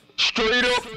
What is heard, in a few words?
vox